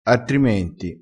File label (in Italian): "artrimenti", crespinese